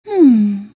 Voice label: happy; queenofpain